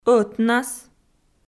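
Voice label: "ӧтнас" Komi, female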